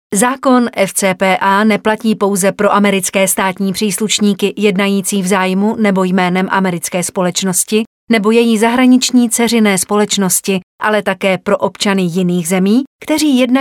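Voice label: Female Czech e-learning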